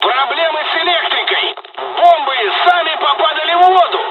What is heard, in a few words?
dialog, dialogs russian; us midway; War Thunder